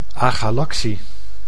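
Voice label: "agalactie", Dutch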